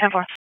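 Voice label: Police Special Operations Tactical; wake; p25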